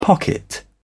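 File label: "pocket", British English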